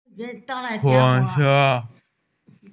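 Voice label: "train", Mandarin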